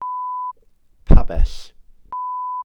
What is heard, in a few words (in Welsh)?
"pabell", glossary